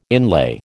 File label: "inlay", American